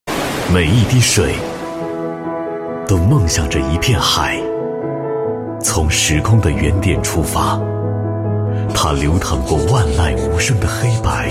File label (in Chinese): 国际电影节 旁白; 海南岛国际电影节旁白